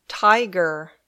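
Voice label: "tiger", English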